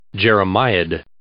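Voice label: "jeremiad", English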